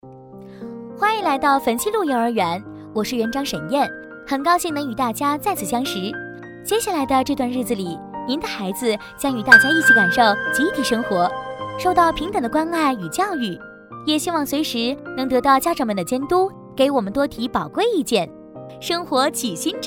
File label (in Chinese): 女 专题 学校 幼儿园 年轻; 汾西路幼儿园